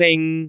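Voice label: "bing3", Cantonese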